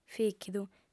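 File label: "fìchidu", Sardinian, female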